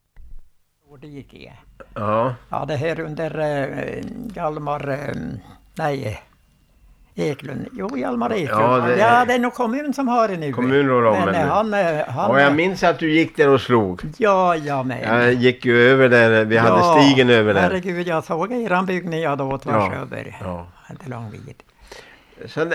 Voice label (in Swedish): dialekter; Folkminnen